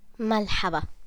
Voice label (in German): Guten Tag, libanesisch